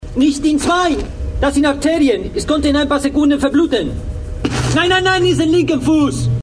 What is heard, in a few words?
es